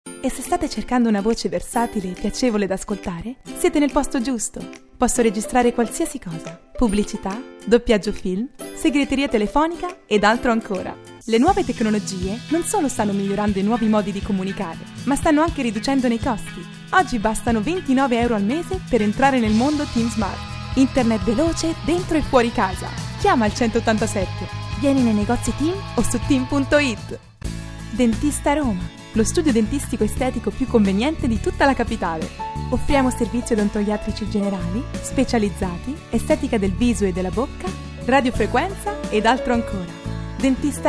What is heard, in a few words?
voice sample IT